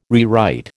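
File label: "rewrite", American English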